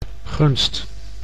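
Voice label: "gunst", Dutch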